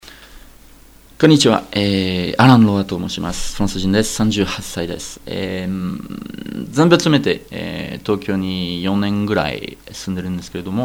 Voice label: Japanese; jk narrator

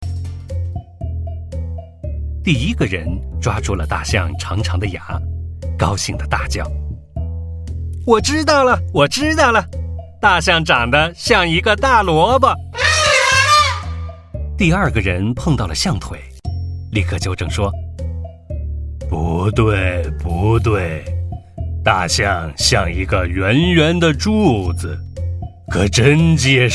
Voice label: character; jk narrator

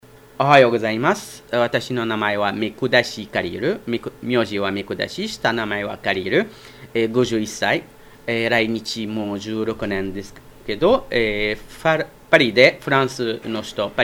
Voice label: Japanese; jk narrator

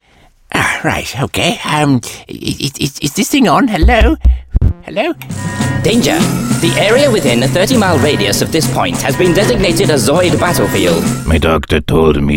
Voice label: Characters, UK

